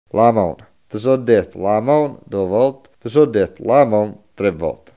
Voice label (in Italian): "mano", coratino; MĀNU; Archivio di parlato dialettale del progetto Galileo G14-126